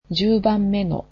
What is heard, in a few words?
"tenth", Japanese